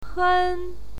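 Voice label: "hen", Mandarin Chinese pinyin, first tone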